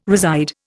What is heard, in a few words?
"reside", Indian